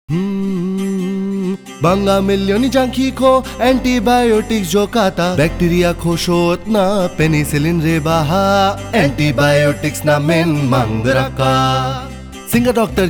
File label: Antibiotic Jingle Garo; jingles in 19 languages